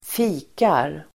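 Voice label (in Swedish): "fikar", Swedish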